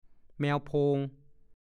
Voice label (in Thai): "แมวโพง", ภาษาโคราช; แมวตัวโตมีตาโตเวลาร้องออกเสียงหง่าว ๆ